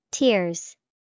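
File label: "tears", English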